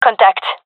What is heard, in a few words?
attacking, gendarmerie fem; vo; agents